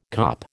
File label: "kop", American